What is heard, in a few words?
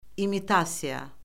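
"imitasiya", Azerbaijani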